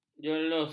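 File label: "jerlus", Malay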